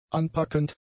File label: "anpackend", German; adjectives